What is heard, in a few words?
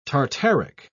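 "tartaric", British English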